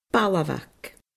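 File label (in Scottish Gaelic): "balbhag", Scottish Gaelic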